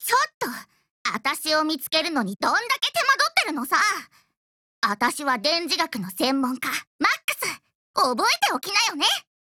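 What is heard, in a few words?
GAIN, Japanese; max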